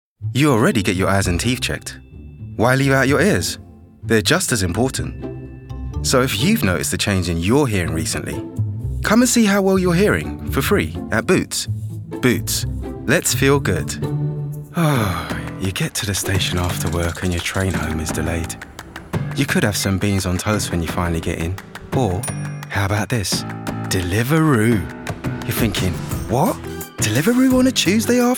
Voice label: Commercial voice